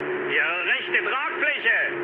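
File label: German right wing mood low, speech; War Thunder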